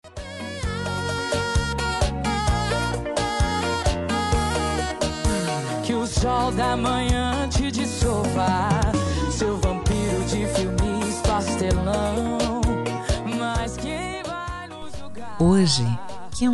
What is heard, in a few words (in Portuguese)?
dn marido fem; dia dos namorados para marido voz feminina